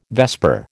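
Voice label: "vesper", American English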